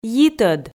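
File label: "йитӧд", Komi, female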